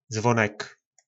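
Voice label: "zvonek", Czech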